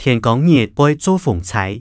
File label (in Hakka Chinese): 天光日會做風搓, 客語